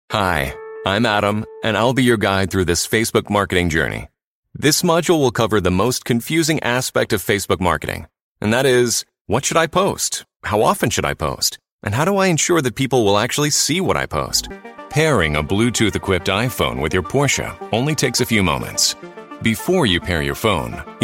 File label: Male Voice Over, E-Learning